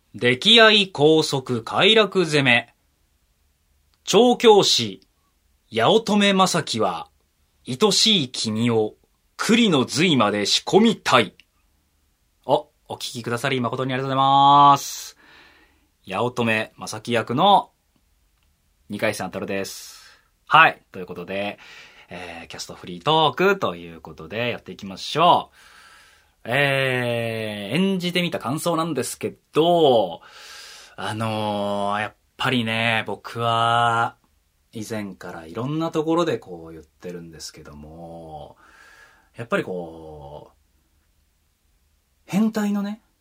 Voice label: FreeTalk, ASMR